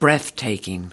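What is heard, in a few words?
"breathtaking", US English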